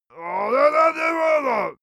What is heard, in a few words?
male gibberish complaining; koerpergeraeusche